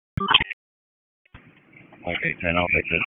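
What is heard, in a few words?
Dispatch 1, P25; wake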